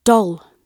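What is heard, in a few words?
"doll", British English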